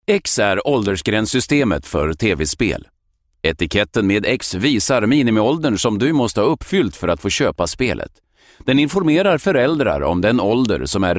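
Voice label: Swedish, male, commercial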